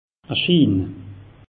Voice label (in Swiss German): "schiin", alsace; meteo